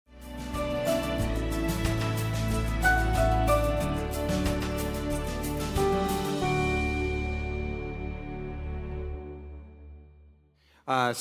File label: Sermon English